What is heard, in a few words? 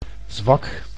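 "zwak", Dutch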